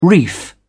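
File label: "reef", English, British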